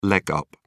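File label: "leg up", British English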